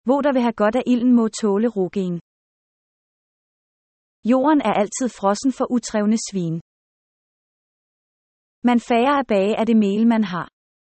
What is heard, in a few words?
danish proverbs